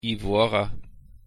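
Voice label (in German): "ivorer", German; pronunciation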